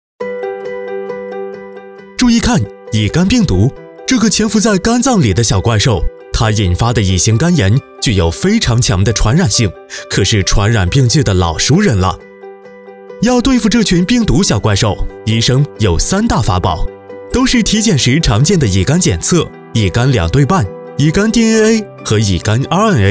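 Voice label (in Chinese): 男 温柔解说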